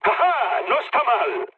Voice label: trick, mood med, speech; War Thunder